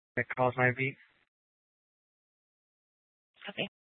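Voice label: police department dispatch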